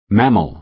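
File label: "mammal", American English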